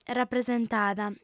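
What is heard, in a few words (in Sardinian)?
"rapresentada", female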